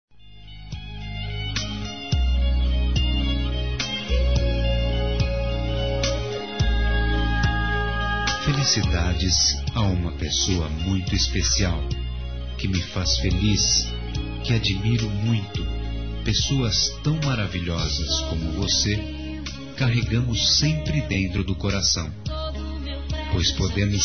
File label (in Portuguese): ANIV. ROMANTICO M